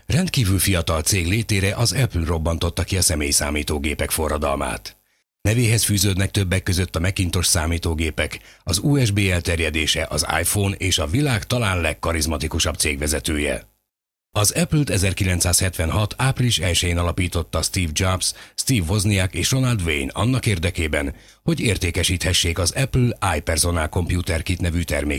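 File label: Hungarian narration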